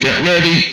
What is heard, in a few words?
"Get Ready", Speech; retro arcade